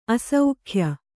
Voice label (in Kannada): "ಆಸೆಗೆಯ್", Kannada